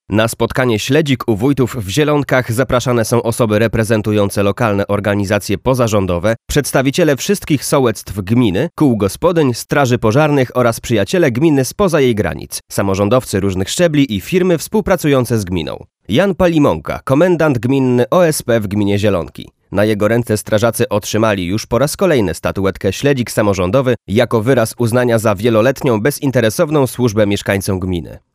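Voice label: voiceover, male, PL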